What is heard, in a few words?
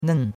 "nen4", chinois; Cours de Chinois